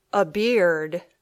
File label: "a beard", English